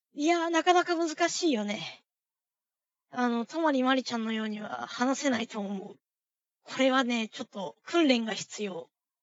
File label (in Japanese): henkan koe, voicechanger